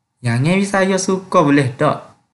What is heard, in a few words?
Kelantan-Pattani Malay